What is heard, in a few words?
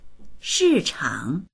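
"shi4chang3", Mandarin Chinese; 市场; shìchǎng; 市場、マーケット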